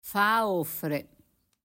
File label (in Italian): Dialetto di Mornese